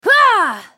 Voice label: ultimate effort; Irelia